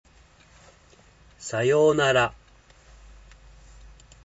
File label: japanese